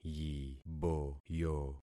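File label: "yiboyo", concatenated syllables; Affixing experiment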